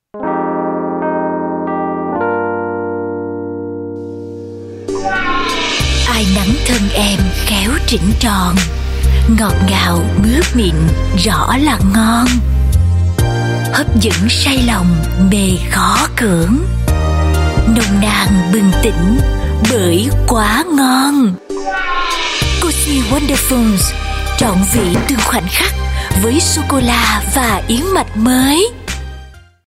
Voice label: Vietnamese Female

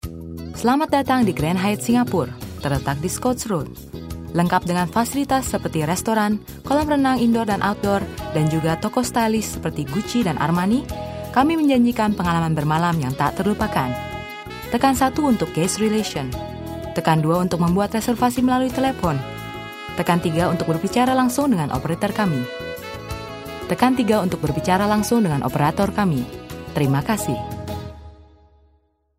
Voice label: Bahasa Indonesia IVR, Bahasa Indonesia Female; Hyatt

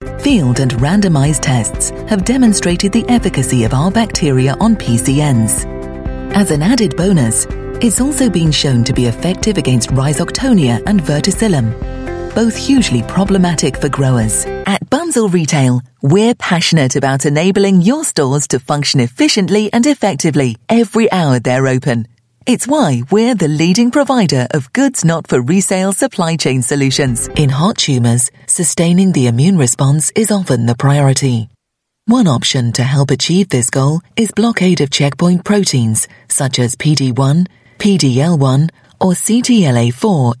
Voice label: UK English Corporate

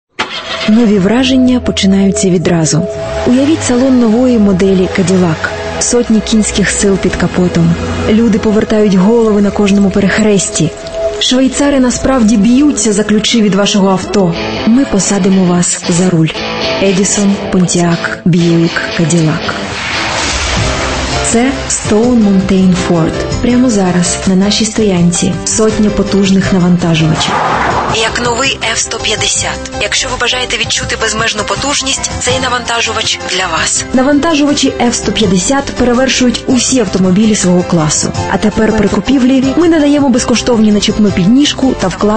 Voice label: Ukrainian, Ukrainian Female

